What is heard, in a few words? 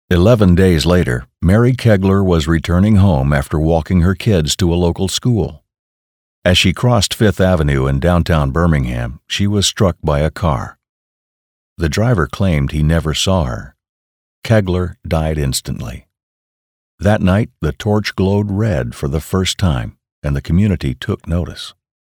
voiceover narration men